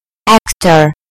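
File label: "actor", British English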